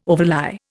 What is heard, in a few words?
"overlie", Indian